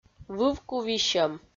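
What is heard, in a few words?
"вывку висьӧм", Komi, female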